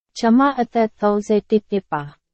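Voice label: Burmese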